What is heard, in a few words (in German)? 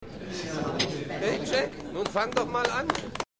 Zwischenrufer, Stimmproben; Seinfeld